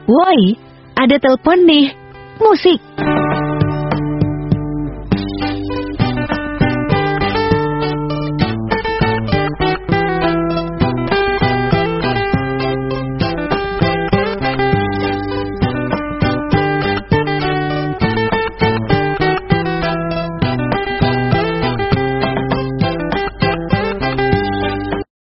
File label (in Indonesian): nada notifikasi WA woi ada telepon nih musik